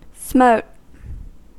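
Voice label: "smote", US English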